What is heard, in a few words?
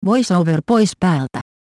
VoiceOver Off, fi; EFI Audio